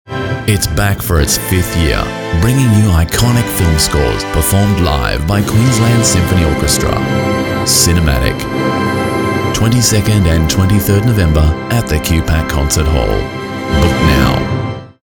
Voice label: voiceover cinematic